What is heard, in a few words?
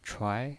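"chuai", Mandarin Chinese, second tone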